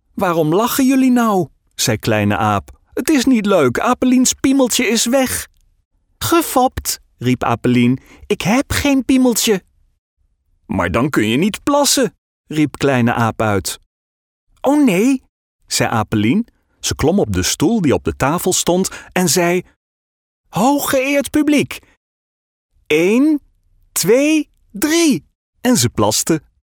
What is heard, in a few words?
NL vo childrens story